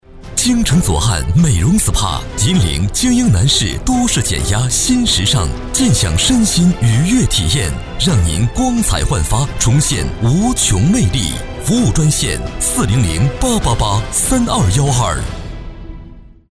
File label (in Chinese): 男97-广告-成熟稳重